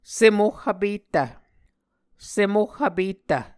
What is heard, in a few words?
"sem oh vpettv", Muscogee